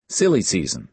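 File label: "silly season", American English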